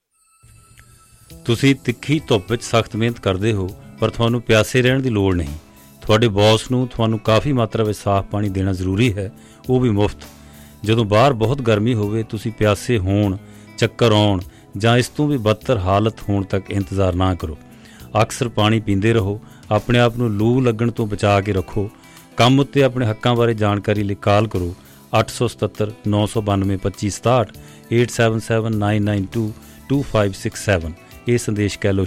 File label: Punjabi Radio